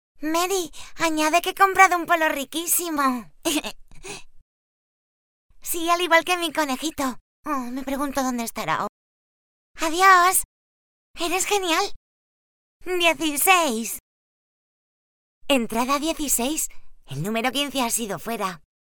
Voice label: locutora infantil, child Spanish voice, child voice for cartoon dubbing; Spanish child voice over services